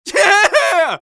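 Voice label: scout taunt flip fun, male voice; taunts; tf2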